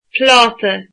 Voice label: "plate", Irish